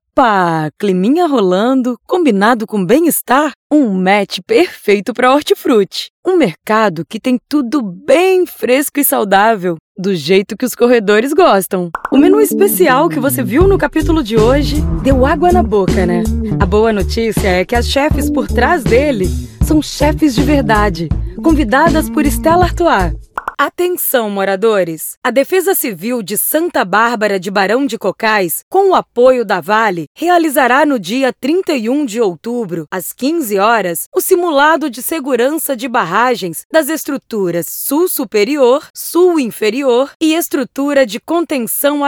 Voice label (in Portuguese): brasil, portugues